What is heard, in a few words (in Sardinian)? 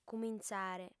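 "cumintzare", female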